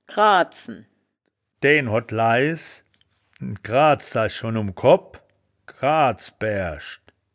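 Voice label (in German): "kraadzen", wittlicher woerterbuch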